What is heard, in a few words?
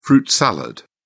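"fruit salad", British English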